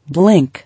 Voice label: "Blink", English